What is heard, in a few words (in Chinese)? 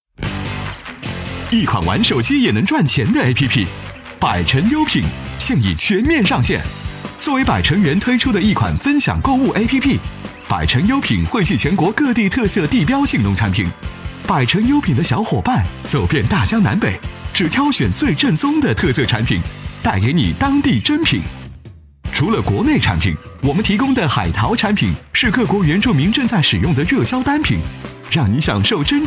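男 专题（轻松活力 动画解说）; 百城优品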